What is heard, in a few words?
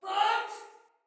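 See Player Soldier Female; Barks Battle; Sinecure Soldier Female B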